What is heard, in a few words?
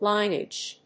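"linage", English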